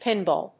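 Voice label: "pinball", English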